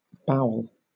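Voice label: "bowel", British English; pronunciations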